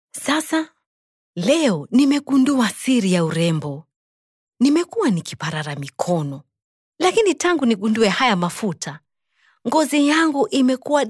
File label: female swahili voice over character